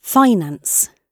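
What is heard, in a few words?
"finance", British English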